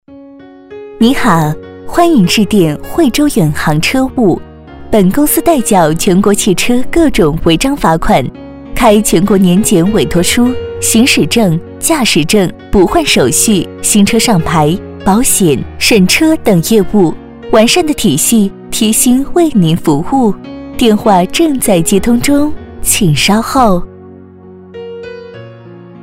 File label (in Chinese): 其他 彩铃 车务 温柔; 惠州远航车务